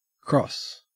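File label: "cross", Australian English; pronunciations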